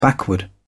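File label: "backward", British English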